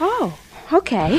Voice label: "oh okay", expletives

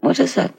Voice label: what is that, female; questions

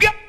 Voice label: "yup", nongender

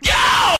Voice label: "doh", voice; ooh ahh

